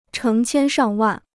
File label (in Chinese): "成千上万", Chinese